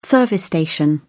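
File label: "service station", British English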